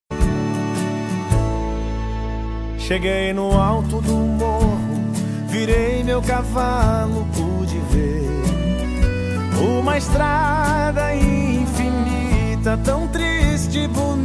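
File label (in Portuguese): aniversario romantico distante masculino, telemensagem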